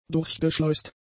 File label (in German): "durchgeschleust", German; adjectives